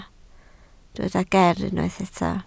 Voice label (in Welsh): "geer" Welsh